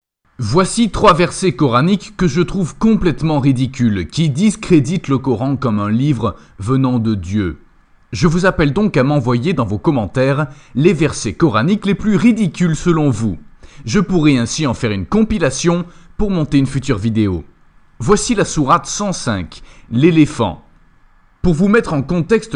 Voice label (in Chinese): 法语男, 外语, 小语种, 浑厚